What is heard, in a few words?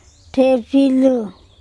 my stomach THephilu, Andamanese